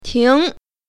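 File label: "ting2", Mandarin Chinese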